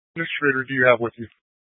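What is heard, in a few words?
Incident Tac 2, Scanner audio recording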